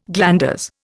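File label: "glandular", Indian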